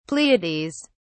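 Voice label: "pleiades", British English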